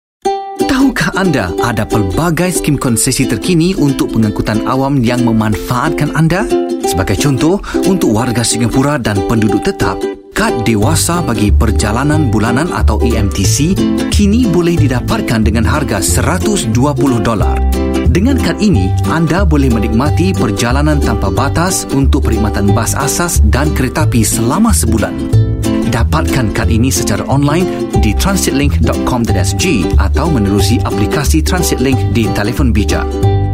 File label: Malay Male Transport Concession Scheme; Mediacorp